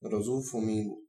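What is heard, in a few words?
pink flamingo, Bulgarian; animals; birds; tuxpaint-stamps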